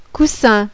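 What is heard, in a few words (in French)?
"COUSSIN", French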